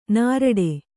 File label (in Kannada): "ನೀರಾಟ", Kannada